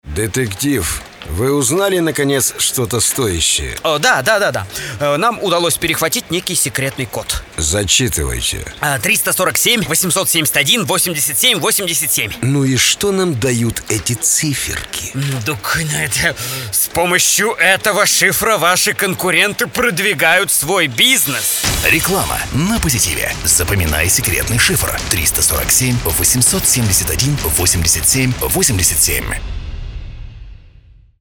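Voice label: Reklama mix, advert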